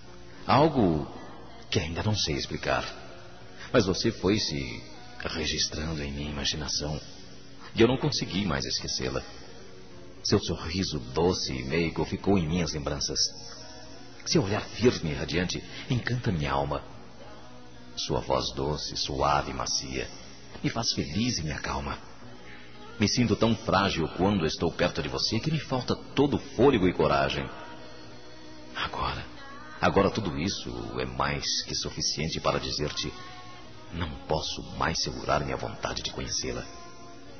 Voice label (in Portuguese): quero TE CONHECE LA MELHOR M